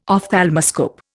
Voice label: "ophthalmoscope", Indian